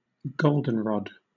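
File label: "goldenrod", British English; pronunciations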